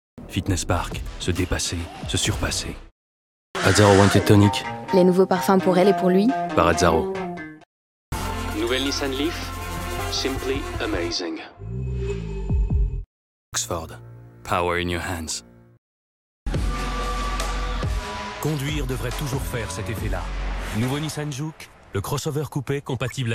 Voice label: MEDLEY pub

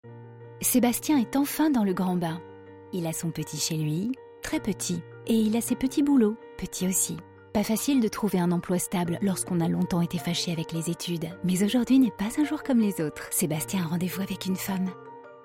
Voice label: Film Pub Cine

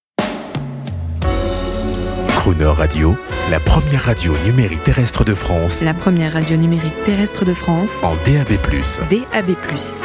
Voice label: JINGLE

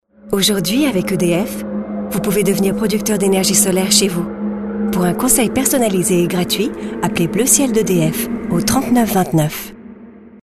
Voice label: Informatif souriant droit; EDF

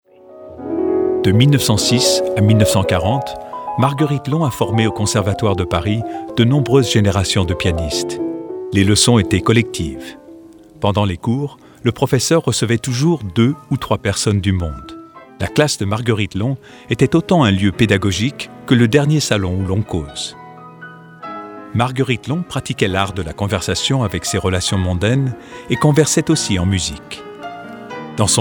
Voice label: Docu VF voix off voice over; Le jeu perlé

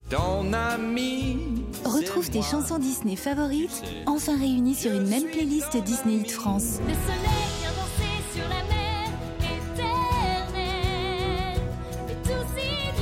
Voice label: pub disque; DISNEY

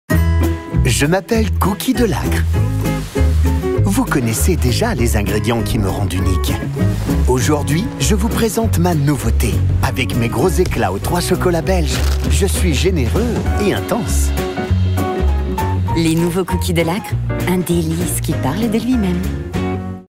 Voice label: Pub TV; Delacre

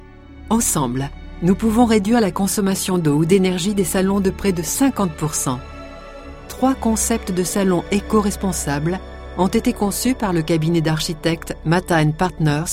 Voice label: institutionnel; L0real

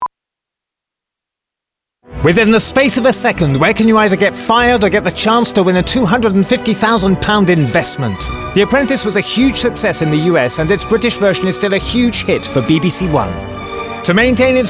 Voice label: Pub internet; BBC

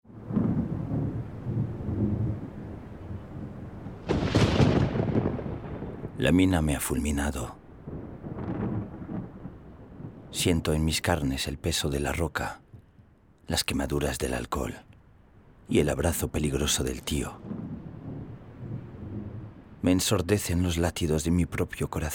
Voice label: Espagnol; LA TENTACION DE POTOSI

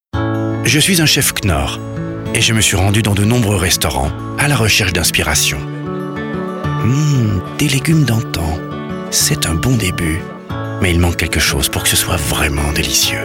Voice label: Gourmand Convaincant Joué; KNORR

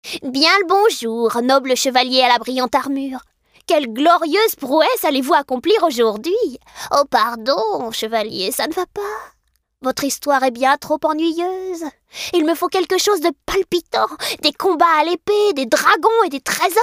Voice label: sorciere troll

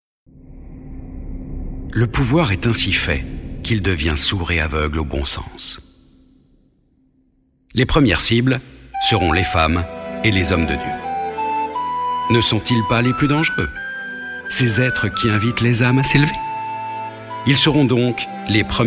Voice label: Podcast medieval; En verre et contre tout

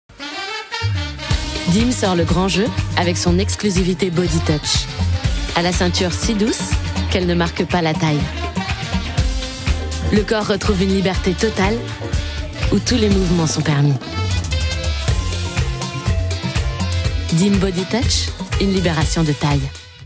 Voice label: Publicit, voix; Body Touch

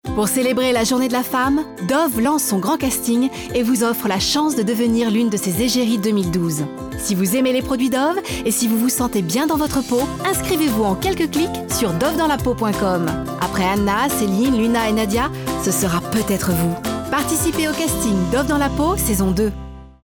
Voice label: promo radio; DOVE

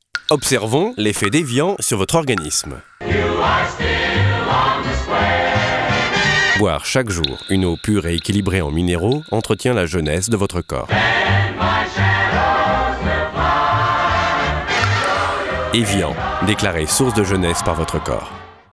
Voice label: TV Droit Convaincant Sobre; Bourgogne; EVIAN

